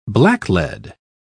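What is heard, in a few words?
"blacklead", British English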